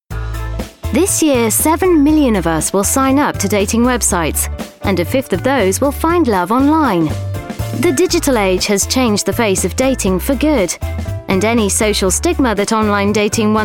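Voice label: English female Narrative Reel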